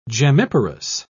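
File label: "gemmiparous", British English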